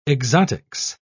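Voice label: "exotics", British English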